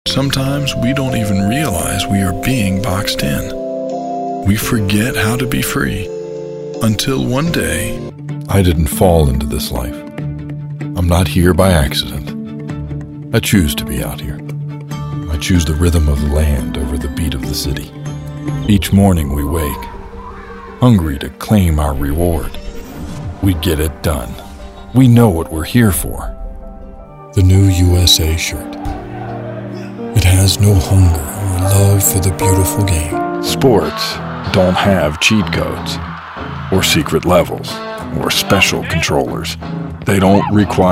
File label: Commercial